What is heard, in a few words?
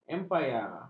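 "empayar", Malay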